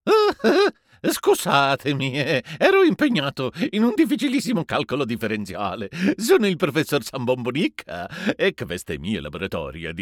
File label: cartoon; DECIBEL